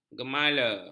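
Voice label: "gemala", Malay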